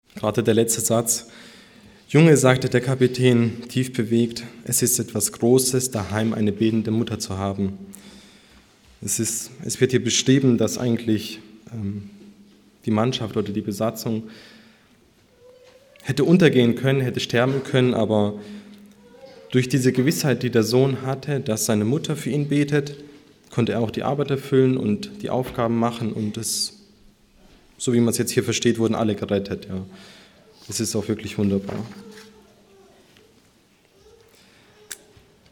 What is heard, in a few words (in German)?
Ansage